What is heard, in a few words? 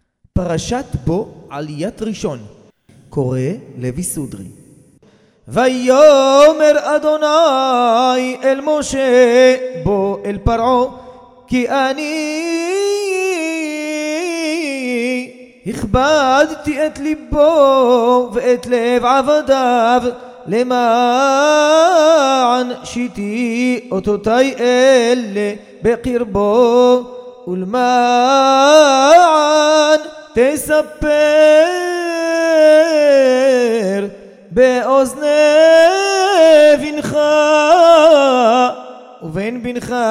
recorded tanach parshat bo sefardi yerushalmi; Paracha Bo sans Haftara sur rite Séfarade Jérusolomit